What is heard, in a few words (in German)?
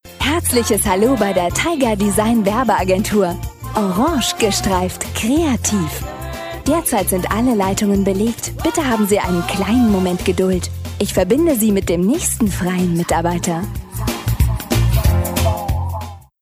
Telefonansage